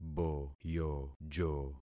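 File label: "boyojo", concatenated syllables; Affixing experiment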